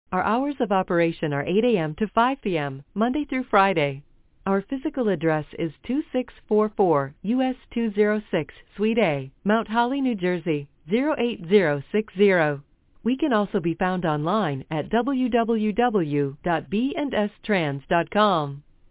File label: After Hours Greeting Female